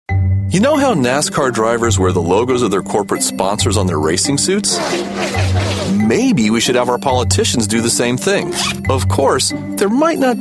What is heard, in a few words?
english american voice over male narrator